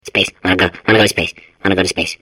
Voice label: babble; portal2